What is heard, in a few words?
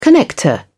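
"connector", British English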